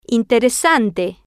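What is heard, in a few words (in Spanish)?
"interesante", Spanish, female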